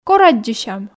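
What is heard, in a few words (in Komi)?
кор аддзысям, Komi, female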